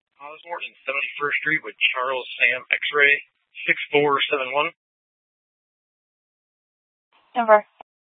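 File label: Scottsdale Police South Dispatch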